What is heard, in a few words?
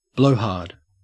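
"blowhard", English, Australian accent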